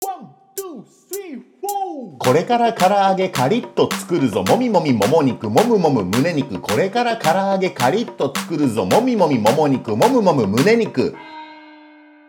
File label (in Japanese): 早口言葉リズム（中級編）